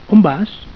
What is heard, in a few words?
"on vas", catalan